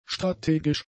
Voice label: "strategisch", German; adjectives